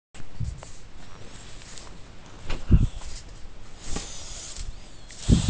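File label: "da3", Mandarin Chinese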